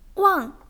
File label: "wang4", zhongwen